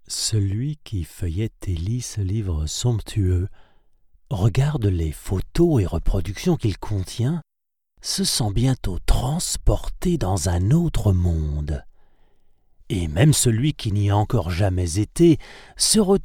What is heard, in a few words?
Audiobook, Professionnal male voice over talent delivers native French voiceovers; Celui qui feuillète et lit ce livre somptueux, regarde les photos et reproductions qu'il contient, se sent bientôt transporté dans un autre monde; Paris Bar Berlin; Michel Würthle